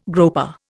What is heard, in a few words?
"groper", Indian